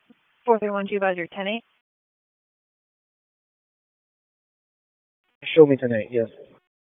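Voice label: Raleigh Police Southeast District Dispatch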